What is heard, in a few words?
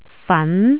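"fan2", Cantonese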